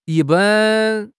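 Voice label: "yi1 ban1", Chinese; HSK3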